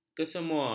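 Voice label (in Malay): "kesemua", Malay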